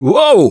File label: Ledge Hang Slip, russian, Survivor Voice; Gambler